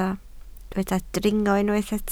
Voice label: "dringo", Welsh

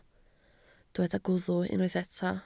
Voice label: "gwddf", Welsh

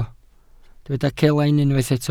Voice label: "celain", Welsh

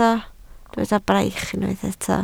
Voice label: "braich", Welsh

